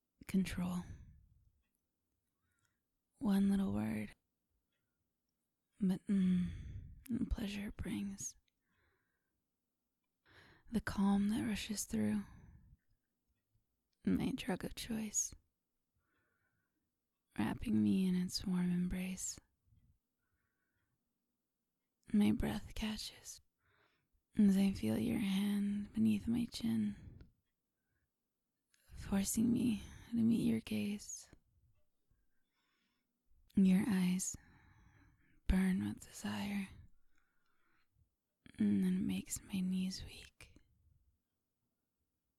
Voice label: Whispers, Breathy, Erotic Poetry; F4M; Fsub; Submission; Control; I'm Yours; Squeeze My Throat